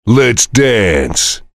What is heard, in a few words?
soldier cheers